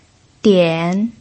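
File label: "dian", Mandarin Chinese, third tone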